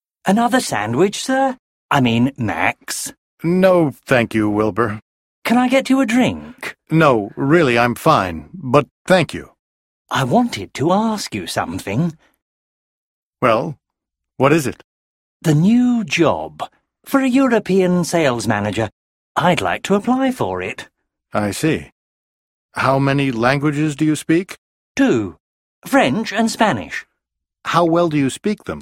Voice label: Conversation, listening